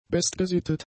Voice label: "bestgesittet", German; adjectives

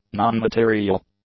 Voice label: "non-material", english; adjectives

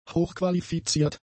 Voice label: "hochqualifiziert", German; adjectives

